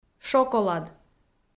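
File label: "шоколад", Komi, female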